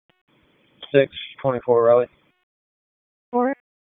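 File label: Police Southwest District Disp, radio; Raleigh Police Southwest District Disp; wake p25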